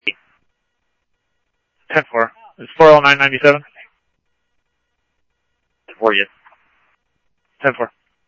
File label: Police, scanner audio trunk; ThompsonPeakHigh; SCTSDLE PD - PD2 ScottsdalePolice